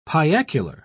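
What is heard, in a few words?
"piacular", British English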